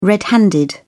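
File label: "red handed", British English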